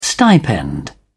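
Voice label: "stipend", English, British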